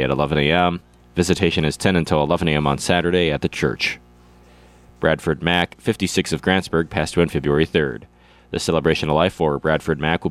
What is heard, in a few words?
Funeral Announcements